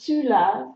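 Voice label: "laver tu", French; frans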